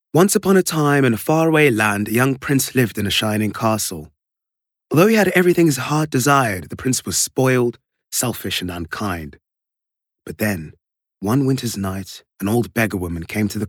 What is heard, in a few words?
UK Narration